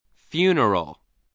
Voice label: "funeral", voice, English